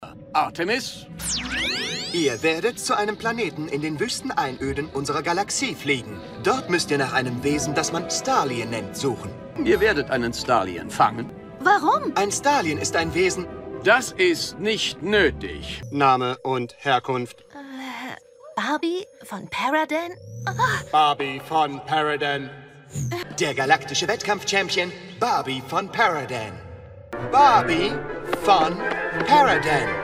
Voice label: dubbing; barbie movie; sternenlicht; upi 2016